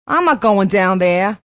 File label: Not Going Down, Female; Sex